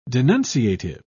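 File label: "denunciative", British English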